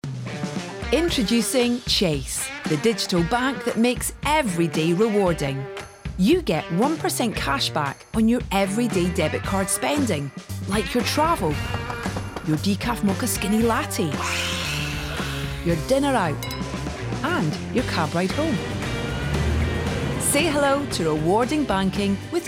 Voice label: Commercials Montage